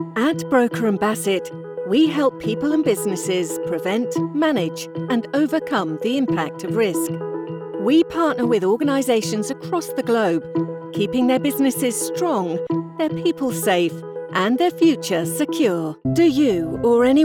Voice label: Explainer, Female British Voiceover; Explainer Videos